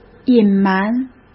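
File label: "隐瞒", Mandarin Chinese; chinese-flashcards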